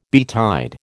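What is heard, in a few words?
"betide", American English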